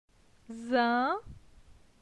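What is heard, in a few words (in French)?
"Zin", French; phonetique; la syllabe in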